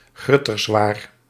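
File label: "grutterswaar", Dutch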